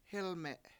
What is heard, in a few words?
"hElme7", Washo; three